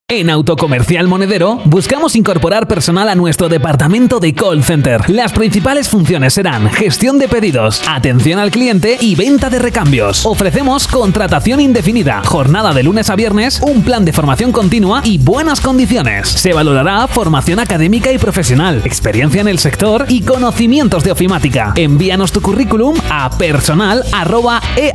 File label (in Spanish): HOMBRE